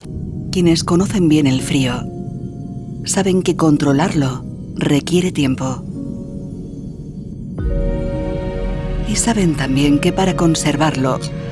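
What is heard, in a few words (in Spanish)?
corporativo España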